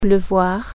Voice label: "rain", French